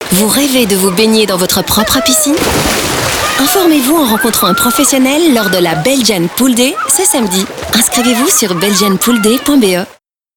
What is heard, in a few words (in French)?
Spot radio; Belgian Pool Day; Spot radio diffusé sur Bel RTL et Nostalgie